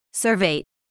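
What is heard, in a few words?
"survey", English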